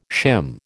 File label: "shim", American